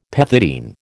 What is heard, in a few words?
"pethidine", American